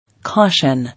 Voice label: "Caution", English